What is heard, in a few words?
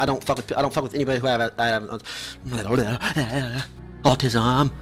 stutter; tyler1; autism